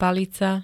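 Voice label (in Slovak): "palica", Slovak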